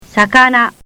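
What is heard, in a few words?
"sakana", Japanese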